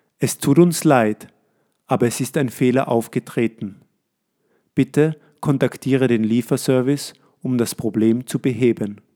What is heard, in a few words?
error, de